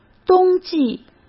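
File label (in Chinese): "冬季", Mandarin Chinese; hsk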